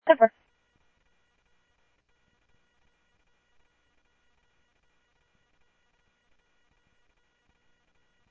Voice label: Scottsdale Police, scanner audio

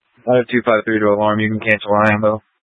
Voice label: Fire Departments, scanner; TOPAZ; C4 Gilbert, QC, Superstition; Mesa Simulcast

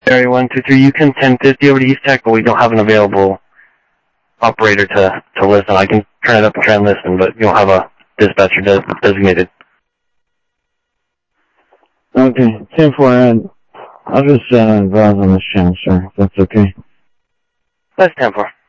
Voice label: scanner audio, Sheriff

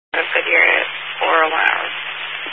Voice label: Highway Patrol, Phoenix Metro West Area, scanner

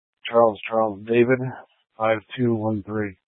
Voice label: Dispatch, scanner

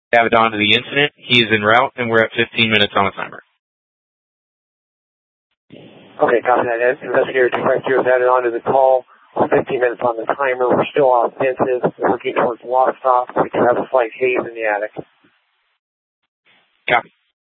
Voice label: FD Departments VHF 154.800 Patch, scanner; Mesa - Gilbert - AJ

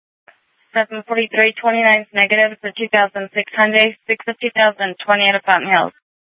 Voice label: Sheriff, scanner

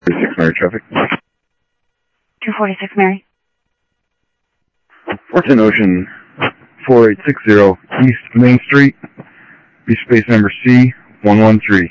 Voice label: Central Sheriff Central, scanner audio trunk

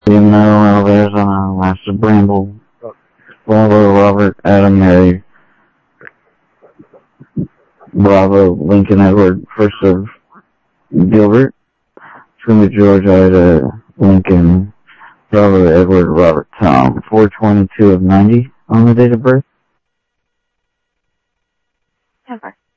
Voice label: Sheriff Common, scanner audio trunk; MCSO - Info; ThompsonPeakScot

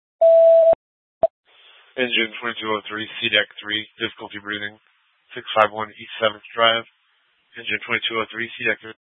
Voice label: FD Dpts VHF 156.240 Patch

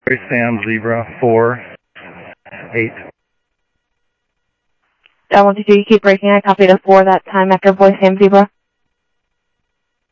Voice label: Sheriff, scanner audio

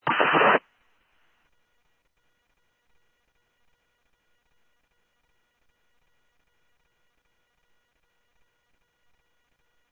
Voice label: scanner audio; Thompson Peak; DPS CI-Ctrl

